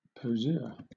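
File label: "poseur", British English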